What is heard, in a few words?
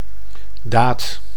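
"daad", Dutch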